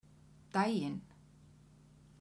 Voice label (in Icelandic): "daginn", kvedjur